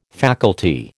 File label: "faculty", American